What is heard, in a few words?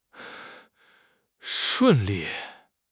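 "shun4 li4", Mandarin Chinese; HSK4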